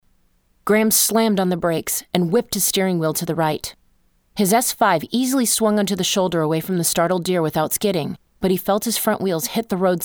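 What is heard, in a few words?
narration, voiceovers female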